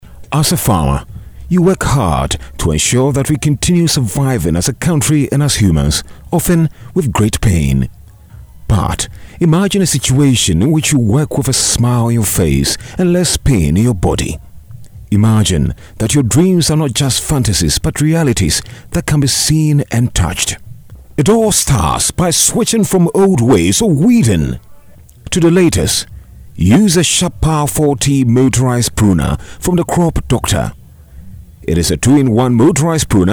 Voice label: agric vo